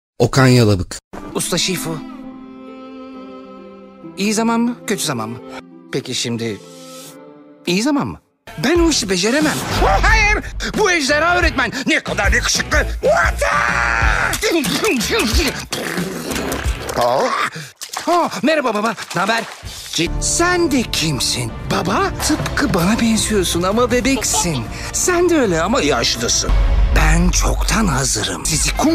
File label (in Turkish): animasyon